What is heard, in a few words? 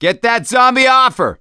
hunter pounced, voice; Left 4 Dead 2; survivor; gambler